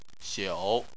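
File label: "xiu", Chinese, third tone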